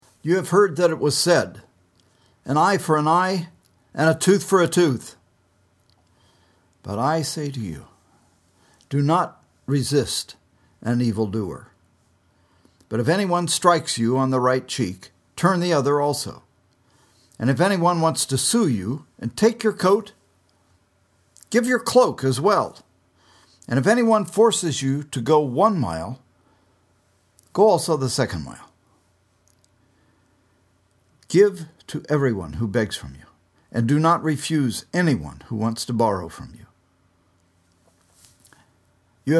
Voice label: storytelling